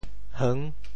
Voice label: "heung6" 远, Teochew; yuǎn; 白

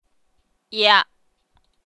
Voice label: "iah", rhymes; 拼音

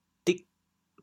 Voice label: "dig8", chaoyang

